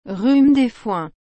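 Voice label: "rhume des foins", French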